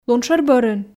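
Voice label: "лун шӧр бӧрын", Komi, female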